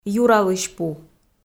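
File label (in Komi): "юралысьпу" Komi, female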